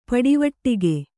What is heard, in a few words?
"ಪಡಿವಟ್ಟಿಗೆ", Kannada